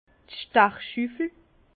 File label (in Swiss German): "stachschuffel", Bas Rhin; vin; bèche